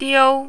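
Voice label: "dou", Mandarin, tone 1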